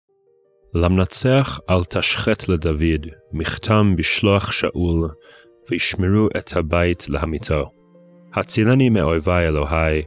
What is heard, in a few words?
poetry and prayer psalm hebrew